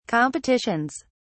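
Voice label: "competitions", British English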